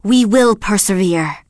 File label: Blood Elf Female Standard Greeting